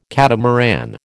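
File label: "catamaran", American English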